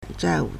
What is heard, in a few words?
"zhai4wu4", Chinese